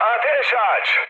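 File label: attack position, mood med, Turkish; War Thunder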